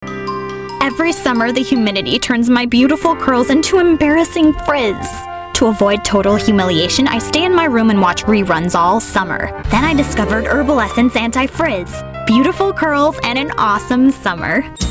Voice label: commercial